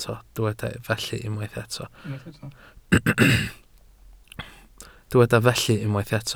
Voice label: "felly", Welsh

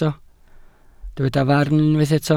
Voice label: "farn", welsh

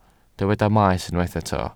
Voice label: "maes", Welsh

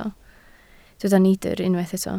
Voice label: "neidr", Welsh

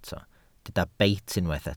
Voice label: "beit", Welsh

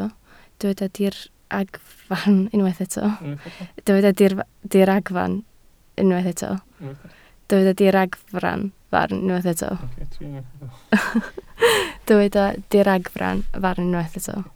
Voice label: "diragfarn", Welsh

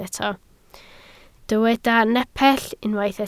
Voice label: "nepell", Welsh

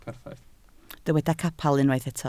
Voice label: "capel", Welsh

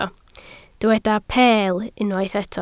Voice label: "peel", Welsh

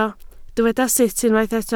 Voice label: "sut", Welsh

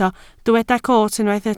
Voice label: "coot", Welsh

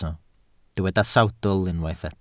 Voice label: "sawdl", Welsh

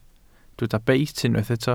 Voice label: "beit", Welsh

